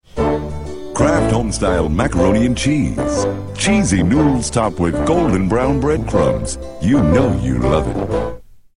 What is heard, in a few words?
commercial; Ted Williams; Kraft